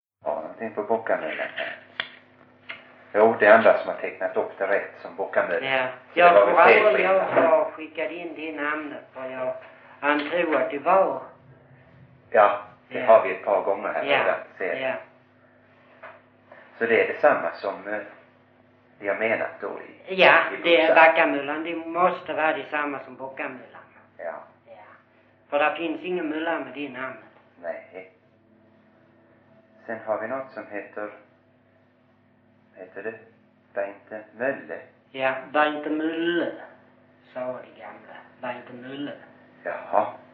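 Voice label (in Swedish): Brösarp Skåne, Svenska sagor dialekter; Folkminnen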